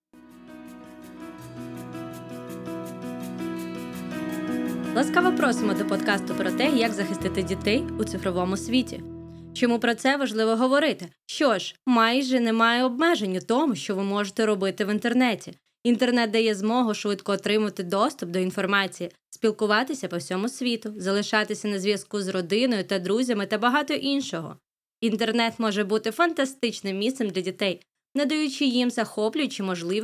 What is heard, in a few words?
Webinar w Music Ukrainian; Ukranian